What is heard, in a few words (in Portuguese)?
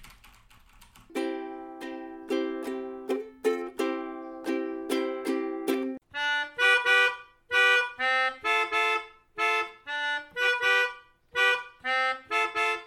teste do microfone nw800